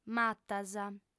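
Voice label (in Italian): "matas", lingua sarda, fem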